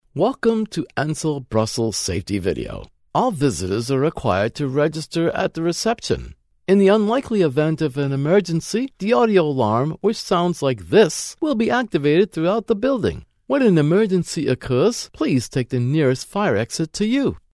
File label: instructional education fire video